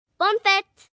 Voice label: French Birthday, Occasions, 1 girl, 9 yrs., French; Holiday; VoiceBox